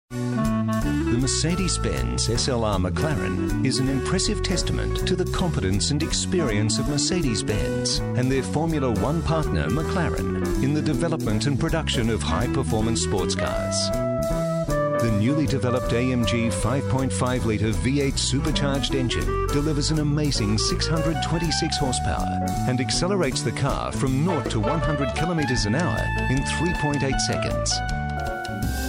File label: Narration